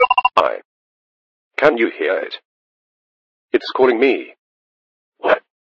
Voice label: radio; sven; resistance; dialogs